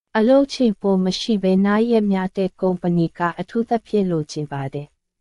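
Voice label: Myanmar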